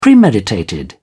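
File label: "premeditated", English, British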